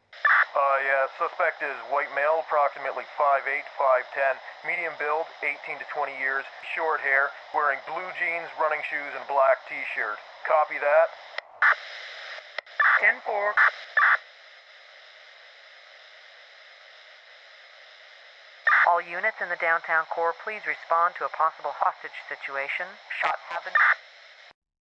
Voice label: Police Converse, Police dispatch radio, female dispatch & male police officer, dialogue; 'location?, ....suspect last seen..., suspect white mal; VoiceBox